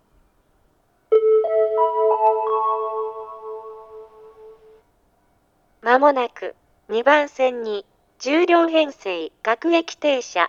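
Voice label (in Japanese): 接近放送, 旭型放送(女声), 青梅線, 最終付帯があります。; 10両青梅行最終; 牛浜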